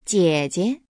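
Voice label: "jiejie", Chinese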